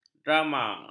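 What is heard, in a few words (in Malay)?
"drama", Malay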